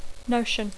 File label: "notion", English; Dacco